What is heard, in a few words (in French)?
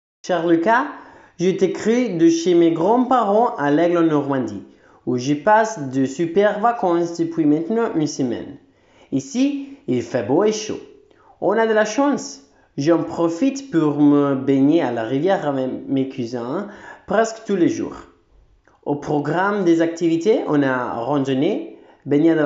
francais